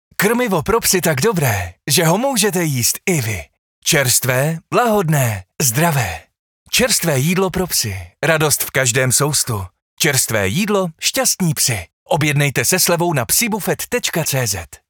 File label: Czech Voiceover Internet ad; v cestine